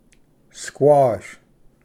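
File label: "squash", English; ᏩᎫᎩ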